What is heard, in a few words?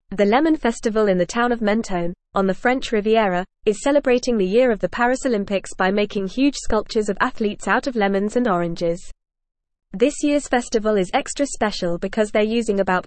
English Upper Intermediate FAST Reading; English Newsroom; Lemon Festival in Menton Celebrates Paris Olympics